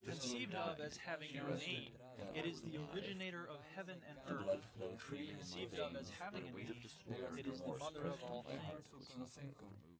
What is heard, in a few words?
male4 inst sim